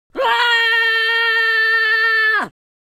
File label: scared; speech